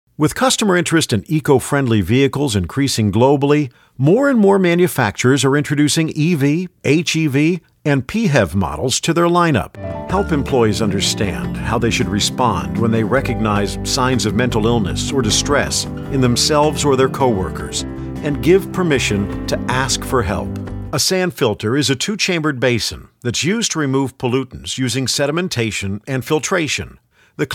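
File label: e-learning, American English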